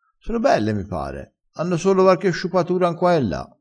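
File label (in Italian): "sciupa", dialettale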